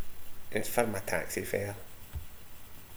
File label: "taxi ferr", slang